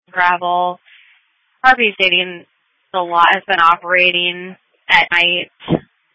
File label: Sheriff Dispatch